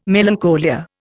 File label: "melancholia", Indian